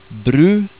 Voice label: "bru", Norwegian